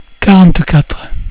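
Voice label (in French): "quarante-quatre", French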